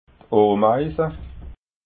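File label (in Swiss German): "omeisa", Alsatian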